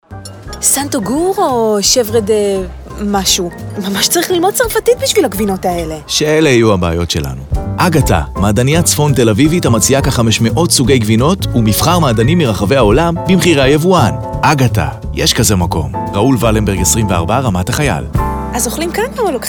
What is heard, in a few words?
radio; Saint Agur